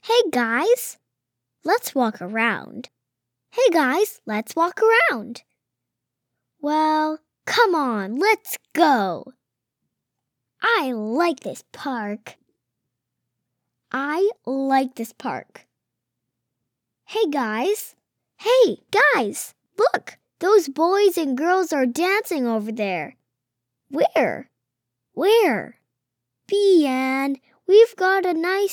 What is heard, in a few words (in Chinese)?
外籍女童声 讲故事